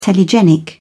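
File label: "telegenic", British English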